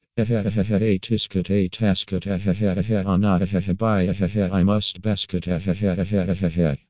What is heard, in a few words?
speech crying